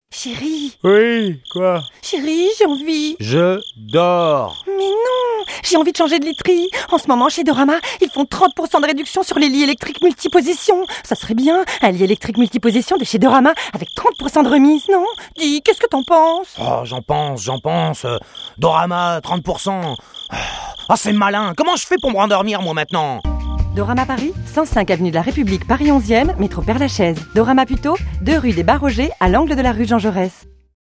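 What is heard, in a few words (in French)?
pub, voix off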